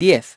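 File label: "ten", English; iOS 4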